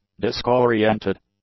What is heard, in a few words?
"disk-oriented", English; adjectives